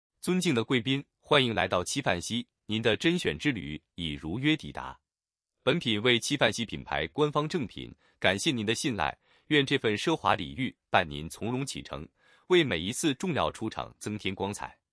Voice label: voice male